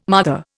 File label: "mother", Indian